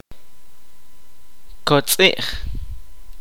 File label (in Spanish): "flor", Kaqchikel